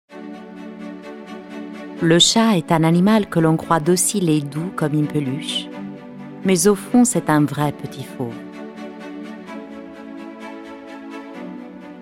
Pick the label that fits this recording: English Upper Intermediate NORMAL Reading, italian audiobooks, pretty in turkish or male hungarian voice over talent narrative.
italian audiobooks